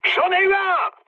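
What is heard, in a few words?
French player killed enemy mood high; War Thunder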